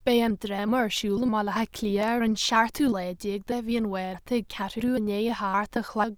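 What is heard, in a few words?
"drama", Irish; gle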